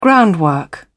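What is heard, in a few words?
"groundwork", British English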